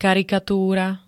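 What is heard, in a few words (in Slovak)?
"karikatúra", Slovenské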